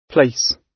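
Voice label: "place", British English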